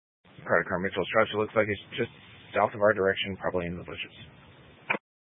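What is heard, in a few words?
Incident Tac 3, Scanner audio recording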